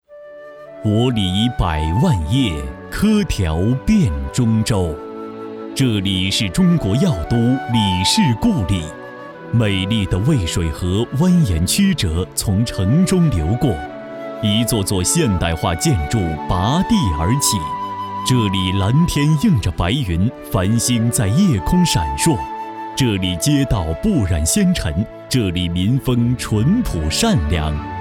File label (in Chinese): 和美陇西（宣传片）